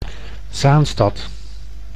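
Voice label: "Zaanstad", Dutch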